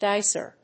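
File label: "DICER", English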